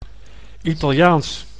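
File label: "Italiaans", Dutch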